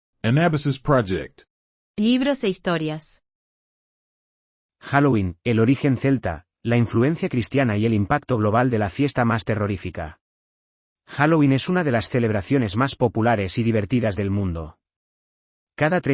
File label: voiceover